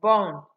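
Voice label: "born", Nigerian Pidgin, female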